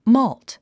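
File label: "malt", English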